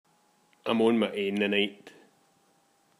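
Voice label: "Ain", slang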